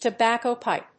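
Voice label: "Tobacco pipe", English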